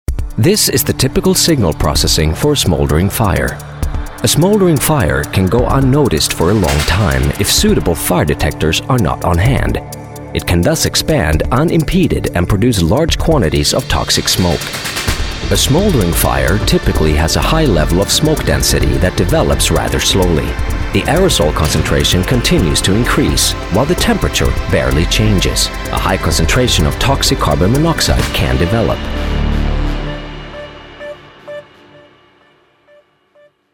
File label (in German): Multimedia Englisch